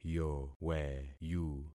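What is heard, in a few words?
"yoweyu", concatenated syllables; Affixing experiment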